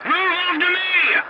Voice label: voice message follow me, english; War Thunder